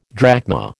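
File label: "drachma", American English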